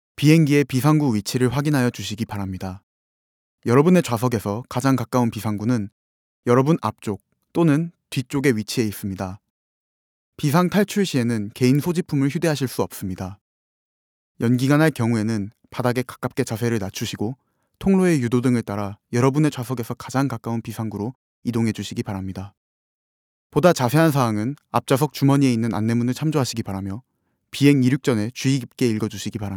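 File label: korean male commercial; voice demos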